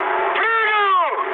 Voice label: bailout, mood high, speech ru; War Thunder